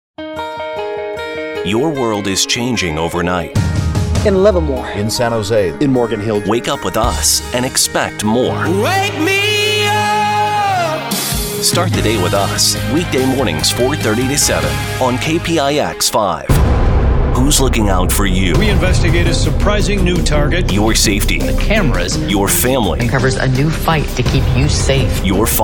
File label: tv affiliate men